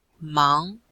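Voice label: "mang2", Chinese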